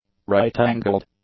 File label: "right-angled", English; adjectives